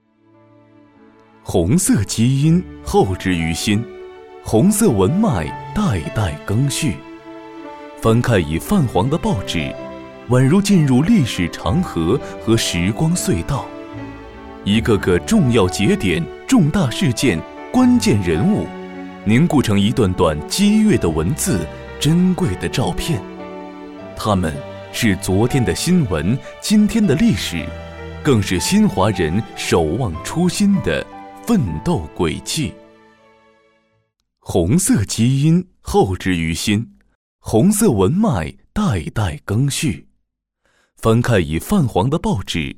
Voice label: 男 专题; 新华社

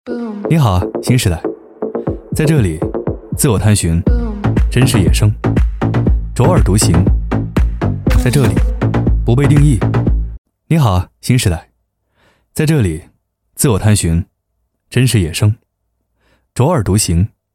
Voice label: 男 广告 干脆 干练 态度

